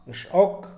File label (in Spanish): "último", Mixe, hombre; Cantidad; INALI